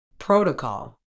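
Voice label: "protocol", medical English, British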